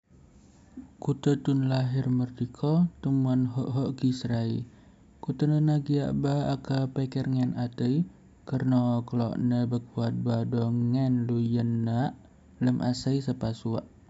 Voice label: Rejang